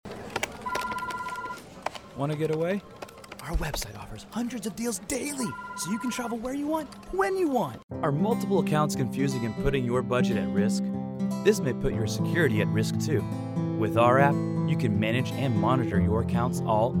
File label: Voice Actor; BigMouth Voices